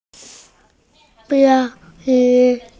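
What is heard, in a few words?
"qian bi" Chinese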